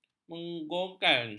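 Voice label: "menggolkan", Malay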